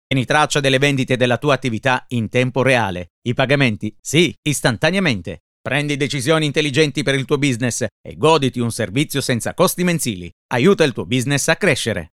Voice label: ITALIAN